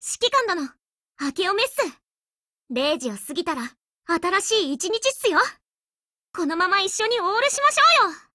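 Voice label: new year, Japanese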